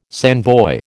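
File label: "sandboy", American English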